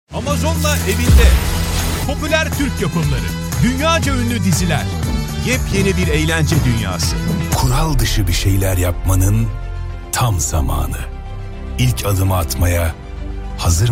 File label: turkish male